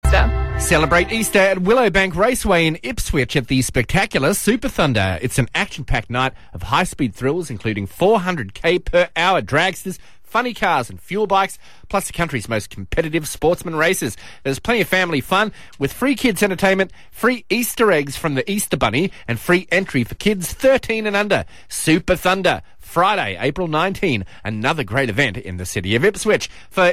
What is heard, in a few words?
Saturday Breakfast Live Read; 97.3FM; Jet Car Thunder